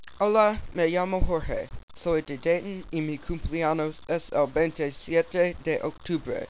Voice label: spanish voice